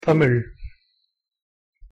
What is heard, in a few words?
pronunciation en tamil